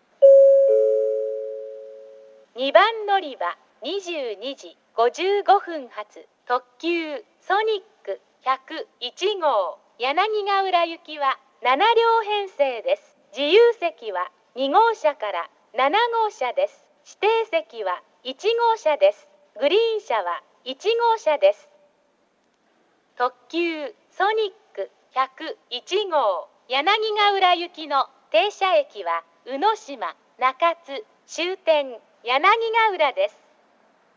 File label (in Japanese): 2番のりば案内放送, 女声; 行橋; ソニック101号 柳ヶ浦行き; JVCスピーカー